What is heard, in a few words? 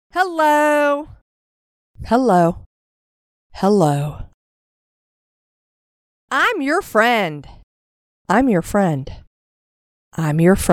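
English US woman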